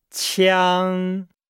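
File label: "qiang", Chinese; Nihao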